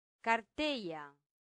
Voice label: "carteia", latin; santillana

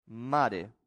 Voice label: "mare", latin; santillana

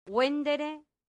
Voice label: "vendere", Latin; santillana

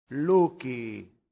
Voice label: "lucii", Latin; santillana

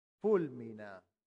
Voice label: "fulmina", latin; santillana

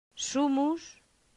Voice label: "sumus", Latin; santillana

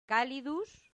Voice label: "calidus", latin; santillana

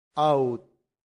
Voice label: "aut", latin; santillana

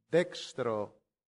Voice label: "dextro", latin; santillana

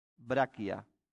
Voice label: "brachia", latin; santillana

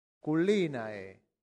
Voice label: "culinae", latin; santillana

